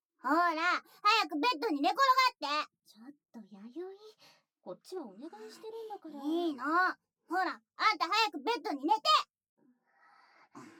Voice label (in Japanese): 言葉責めと癒やしの囁きと耳舐め（SE無し）; 美人姉妹に挟まれ